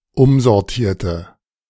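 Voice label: "umsortierte", German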